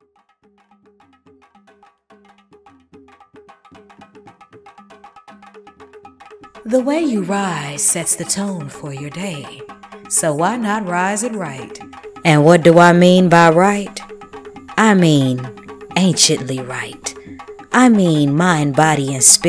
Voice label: Promo; RisING BEMBE